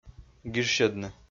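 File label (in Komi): "гижсьӧдны", Komi, male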